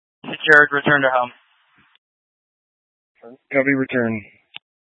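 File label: Incident Tac 3, Scanner audio recording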